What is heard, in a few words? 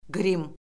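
"qrim", Azerbaijani; Orfoqrafiya Lüğəti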